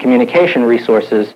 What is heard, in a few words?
LoFi Phrases, LoFi Vocals; Vengeance Samples